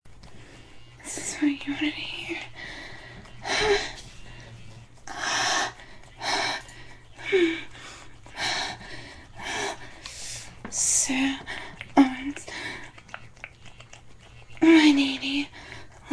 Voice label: improv; Breeding; F4A

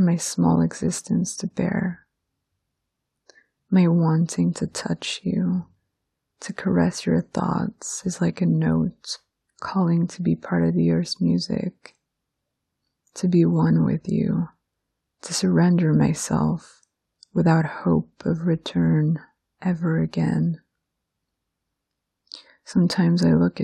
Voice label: My Desire, Erotic Poetry

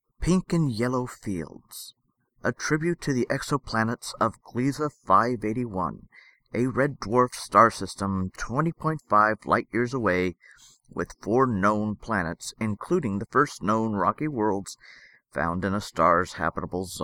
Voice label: poem; Gliese 581

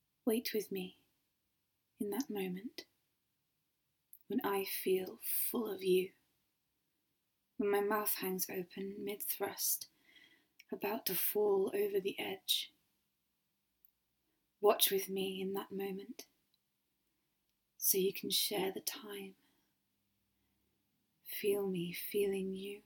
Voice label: F4M, Erotic poetry, Intimate, Sensual, Romantic; In That Moment

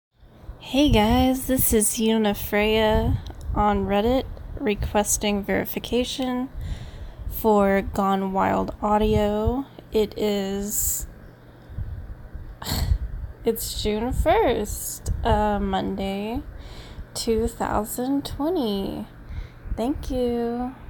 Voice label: F, Verification, Request

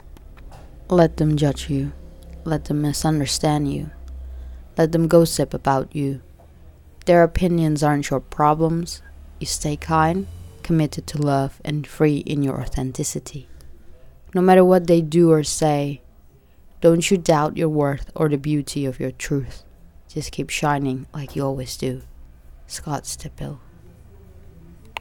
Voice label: reading; Scott Stabile

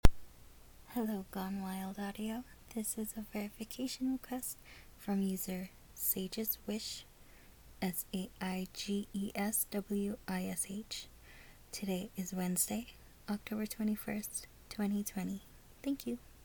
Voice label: F, Verification

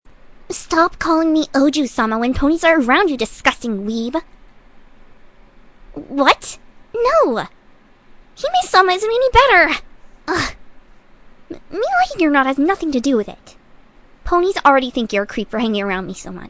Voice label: Various Tsundere lines; Various Tsundere Tiara lines #2; Diamond Tiara

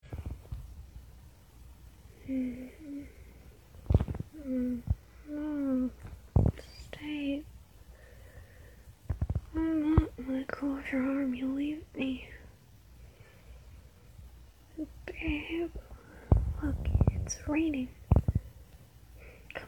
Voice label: Cute, Cuddling, Sleepy, Needy, Begging, F4M; Rainy Days Are Made For Cuddling; Playful; Slight Fsub

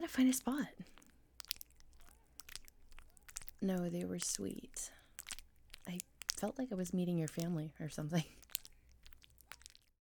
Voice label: Casual Conversation And Wet Sounds

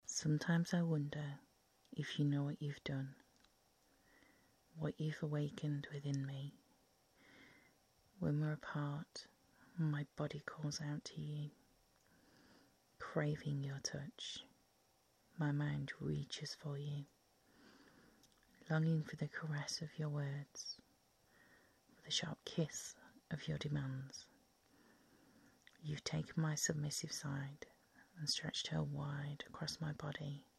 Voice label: Erotic Poetry, Sensual, British Accent; F4M; Fsub

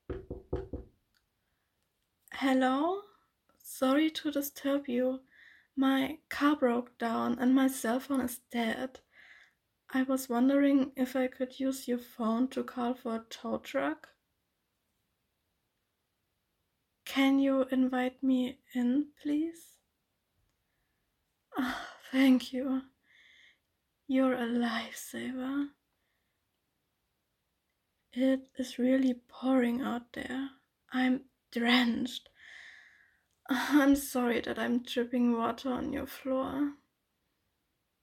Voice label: Female Vampire; [F4M] Female Vampire Drains Her Male Victim's Balls

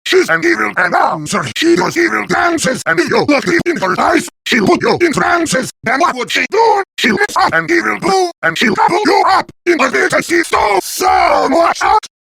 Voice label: Evil Announcer (Acapella)

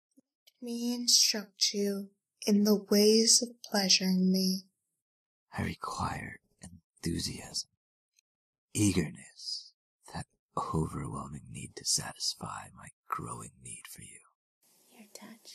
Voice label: FFFMMMMM4A, Erotic Poetry; Let Me Instruct You; DomDomme; A Cake Day Slice Collab for y'all

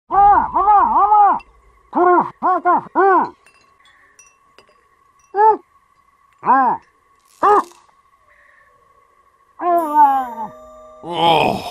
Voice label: Evil Announcer

